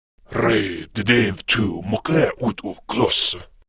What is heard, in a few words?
Patrol, Male